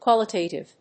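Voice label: "qualitative", English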